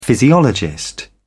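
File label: "physiologist", British English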